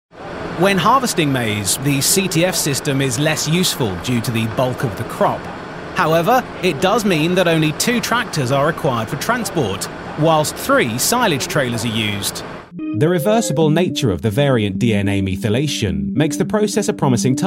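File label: British English narration, mann; engelsk-uk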